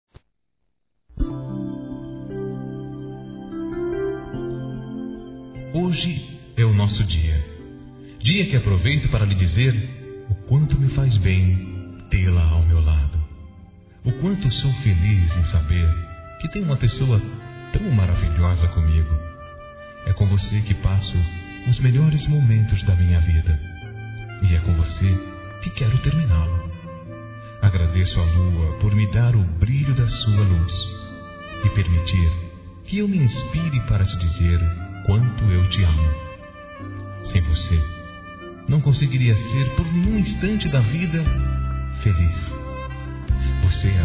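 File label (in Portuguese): DIA DOS NAMORADOS, Masculino; Telemensagens; Telemensagens 2017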